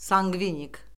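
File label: "sanqvinik", Azerbaijani; Orfoqrafiya Lüğəti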